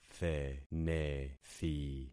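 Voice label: "thenethi", concatenated syllables; Affixing experiment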